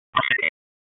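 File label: FIRE Operations, p25; FIRE OPS 1; Wake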